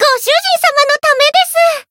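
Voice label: Voice growth, Japanese; myungwha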